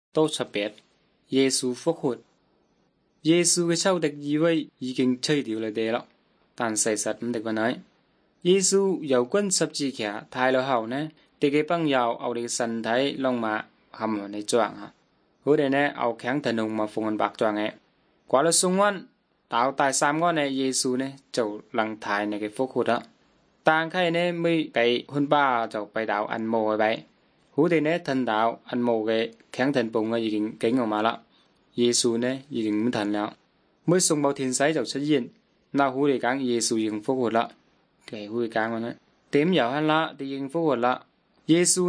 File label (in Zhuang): good news zhuang nanning fushu